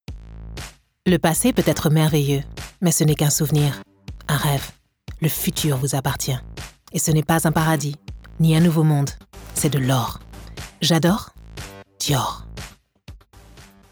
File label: female French commercial; dior